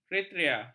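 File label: "kriteria", Malay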